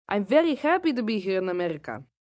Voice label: Here In America, male, boy; Talking Sound Effects; Sound Effects:Voice; VoiceBox